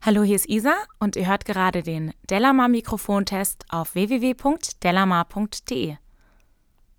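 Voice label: speech female, Shure Beta27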